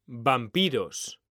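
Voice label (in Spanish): "vampiros", Spanish; mekanta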